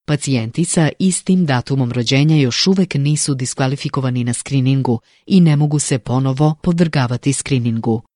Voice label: Serbian Native